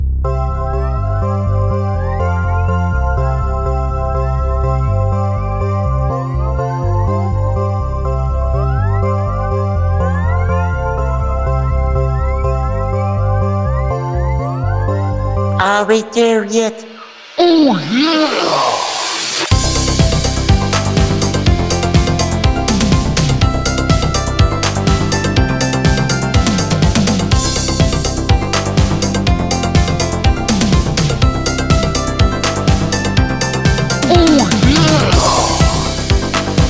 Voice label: ohh yeaaah